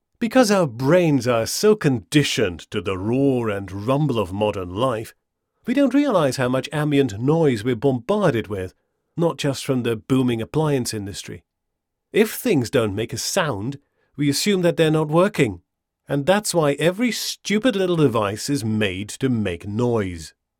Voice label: Without Screen Deverb; Nethervoice